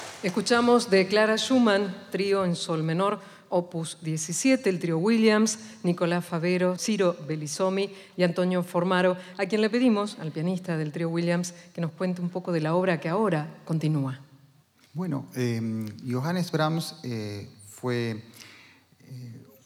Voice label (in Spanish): palabras locutora